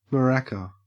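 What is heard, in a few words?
"maraca", Australian English; pronunciations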